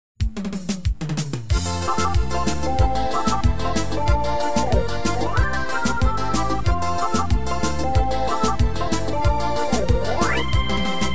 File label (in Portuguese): locutor